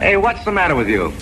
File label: hey what's the matter with you male